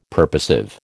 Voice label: "purposive", American